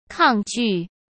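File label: "抗拒", Mandarin Chinese; hsk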